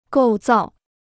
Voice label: "构造", Chinese

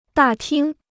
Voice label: "大厅", Chinese

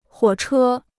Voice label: "火车", Chinese

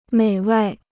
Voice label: "美味", Chinese

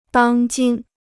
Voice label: "当今", Chinese

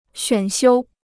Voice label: "选修", Chinese

